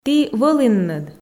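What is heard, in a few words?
"ті вӧлінныд", Komi, female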